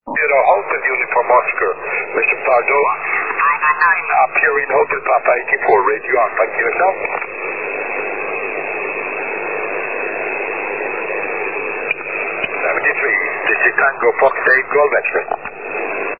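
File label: 6m single sideband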